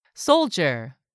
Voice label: "SOLDIER", english; 3000 words